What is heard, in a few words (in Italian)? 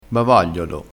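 "bavagliolo", dialettale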